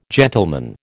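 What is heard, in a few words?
"gentleman", American English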